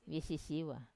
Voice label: "visisiwa", sprout, wamesa